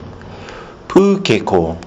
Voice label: "pukeko", kiwi english